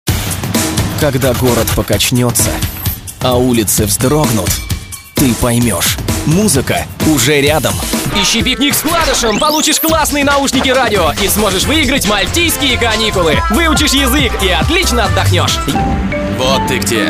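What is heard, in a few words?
Russian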